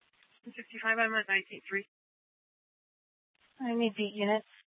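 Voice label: Police Department Dispatch, scanner; Mesa PD; 3 Red Mnt Dispatch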